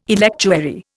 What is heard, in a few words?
"electuary", Indian